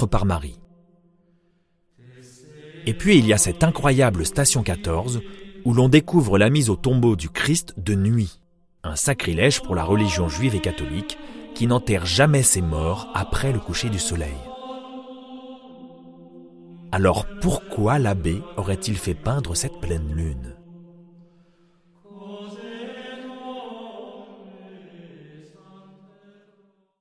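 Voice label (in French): docu medium pose, voix off masculine; voix off masculine naturelle, posée, souriante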